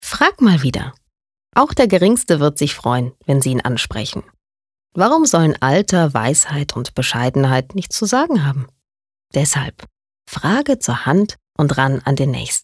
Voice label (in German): Werbung Sanft